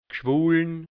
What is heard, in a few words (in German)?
"gschwulln", Hianzisch, Mundart, Burgenland; geschwollen